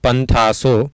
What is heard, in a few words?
"panthaaso", Sanskrit